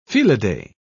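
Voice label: "felidae", British English, dictionary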